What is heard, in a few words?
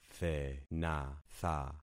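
"thenatha", concatenated syllables; Affixing experiment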